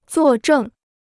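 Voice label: "做证", Chinese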